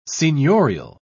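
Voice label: "seigniorial", British English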